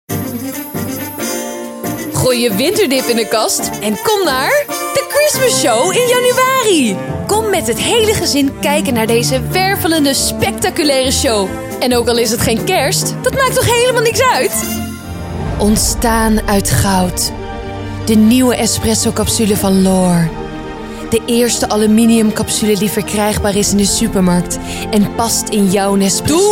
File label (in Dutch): Stemacteren Commercials